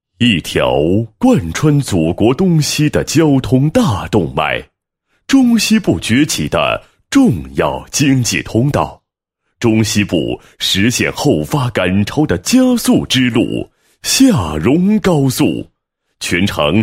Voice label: 男 宣传片 宣传介绍; 厦蓉高速织金至纳雍段宣传介绍

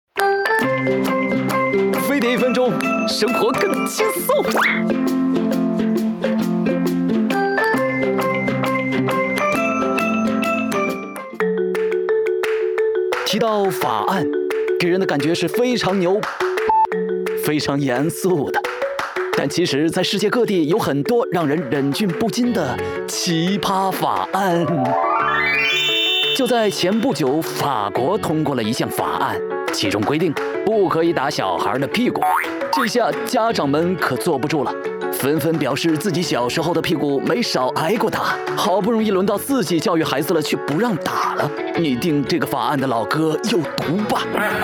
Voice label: MG 逗比 欢快 飞碟说 配音; 奇葩法案

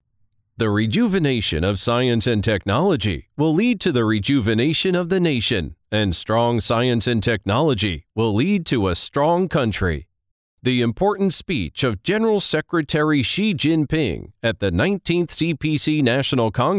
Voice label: 外籍英男 干音 党政 农业现代化

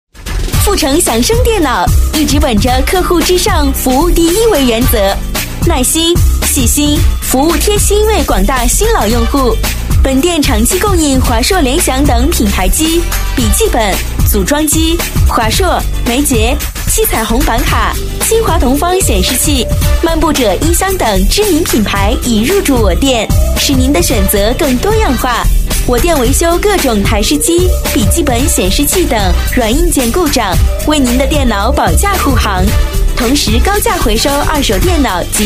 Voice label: 促销广告 车上放的促销广告 激情甜美, 国语女声配音

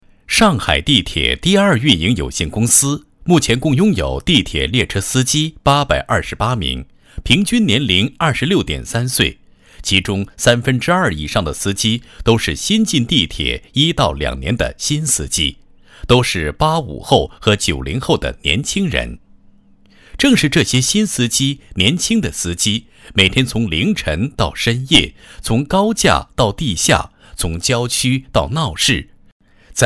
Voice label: 人物类讲述类; 人物样音; 汽车城市地铁

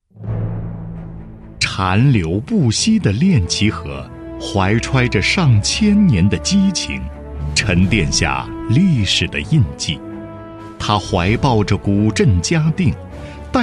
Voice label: 男 专题 双拥 记录风格, 国语男声配音; 嘉定; 大气

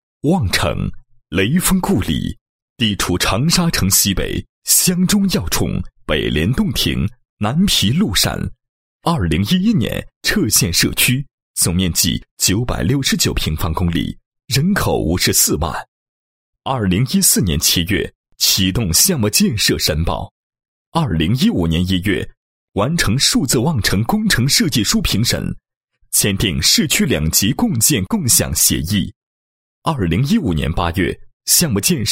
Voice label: 男-政府-地理信息数据, 配音案例; 数字望城

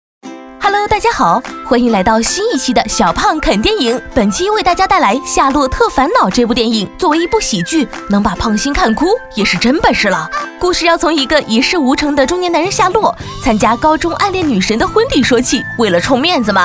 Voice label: 女 轻松欢快; 飞碟说; 夏洛特烦恼

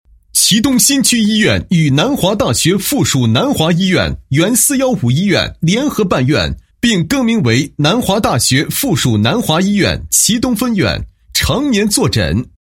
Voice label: 男 医院; 祁东新区医院与南华大学附属南华医院

